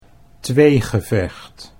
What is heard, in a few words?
"tweegevecht", Dutch